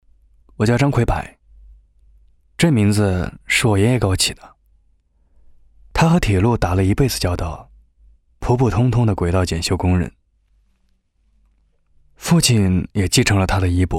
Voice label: 男 年轻自然旁白

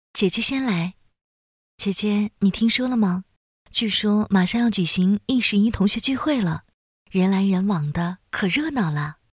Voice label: 角色扮演; 芈月

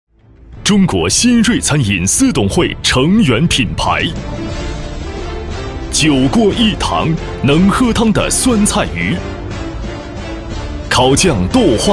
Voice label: 男 高铁广告 美食推荐串烧

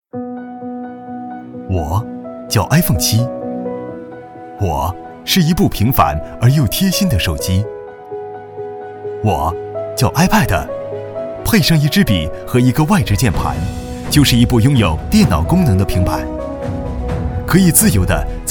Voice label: 男 专题, 国语男声配音; 华闽 数码

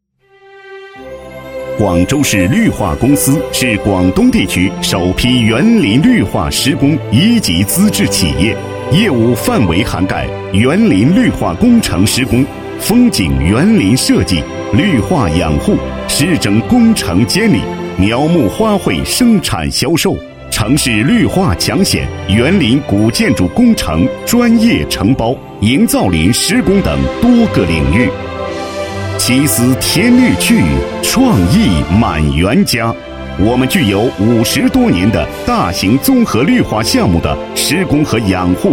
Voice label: 男 专题 绿化公司介绍, 国语男声配音, 浑厚

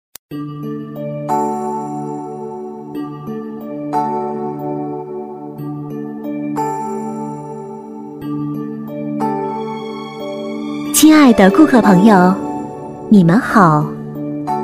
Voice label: 女1 迎宾词 国际家居生活广场, 国语女声配音, 磁性; 博皇

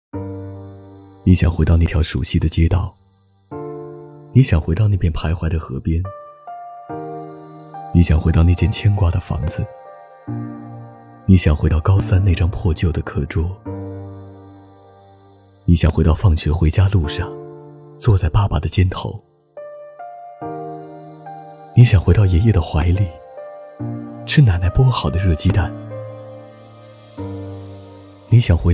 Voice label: 公益广告; 央视CCTV; 年味

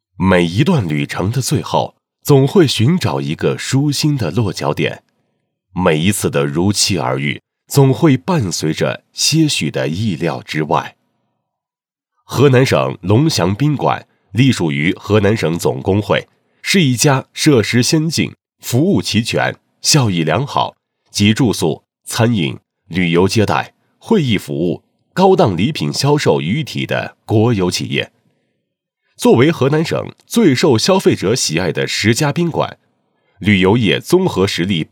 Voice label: 男 企业宣传 宣传策划配音; 龙祥宾馆

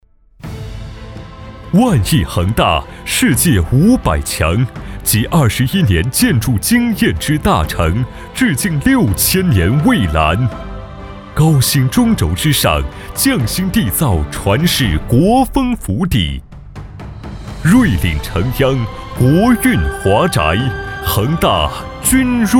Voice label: 专题, 大气浑厚磁性, 企业

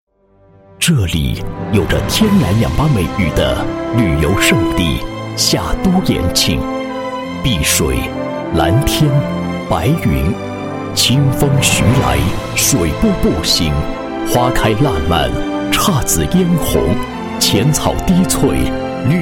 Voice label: 男 社区宣传片; 恒安社区

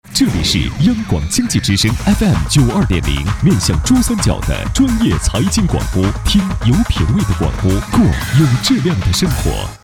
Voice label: 男 电台呼号 标准台宣; 央广经济之声

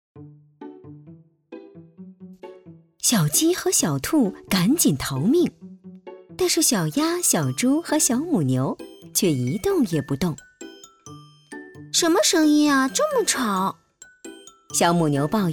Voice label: 模仿 儿童绘本 多角色 童话故事

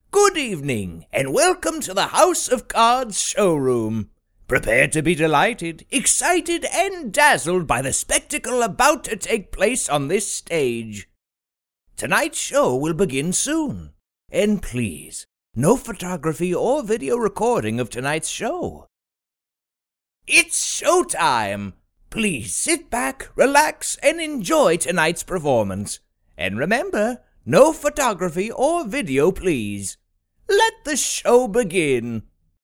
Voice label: 外籍英男 海盗 模仿

